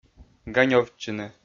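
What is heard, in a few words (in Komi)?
"ганёвтчыны", Komi, male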